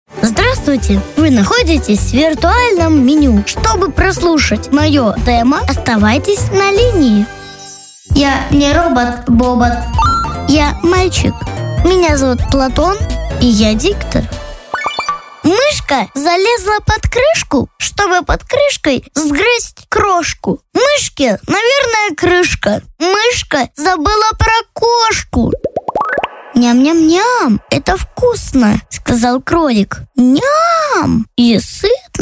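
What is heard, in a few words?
Detskiy golos, child voice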